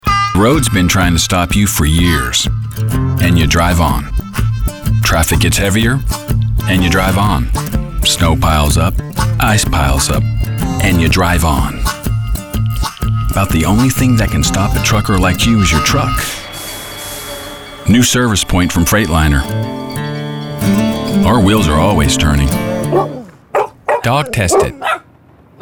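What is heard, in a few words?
Commercial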